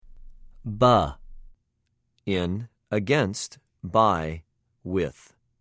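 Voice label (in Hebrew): "בְּ", Hebrew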